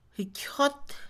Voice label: hígado, Mixe, Mujer; Cuerpo; INALI

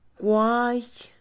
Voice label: caballo, Mixe, Mujer; Animales; INALI

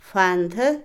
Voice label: venado, Otomí, mujer; Animales; INALI

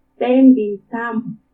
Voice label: "Témbini ka t'amu", catorce, Purépecha; Números

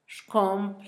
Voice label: amigo, Zapoteco, Asunción Ocotlan; INALI; Haspelmath

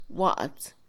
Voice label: limpio, Mixe, Mujer; Percepción; INALI

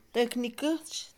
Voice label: Mixe, Mujer; azotea; Casa; INALI

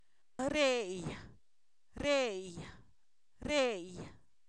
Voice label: Zapoteco, san miguel albarradas; se coce; verbos; INALI

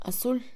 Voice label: Mixe, Mujer; azul; Percepción; INALI

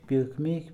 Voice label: "recoger", Mixe, hombre; Espaciales

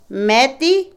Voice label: Otomí, mujer; mendigo; Posesión; INALI

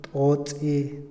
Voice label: "colchón", Otomí, hombre; Moderno; INALI

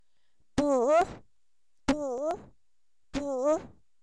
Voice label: carbón, Zapoteco, san miguel albarradas; objetos inanimados

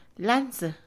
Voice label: Mixe, Mujer; lanza; INALI

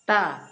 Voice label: cuatro, Zapoteco, Asunción Ocotlan; Números; INALI

